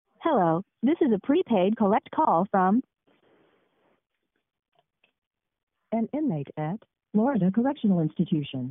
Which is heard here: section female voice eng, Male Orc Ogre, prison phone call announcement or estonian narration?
prison phone call announcement